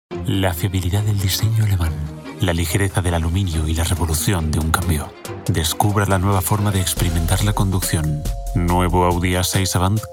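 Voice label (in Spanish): Formal, locución; Audi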